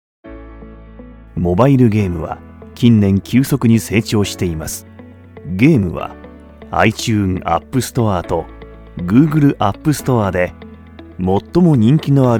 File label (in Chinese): 日语 男声 老师; 日语男声号老师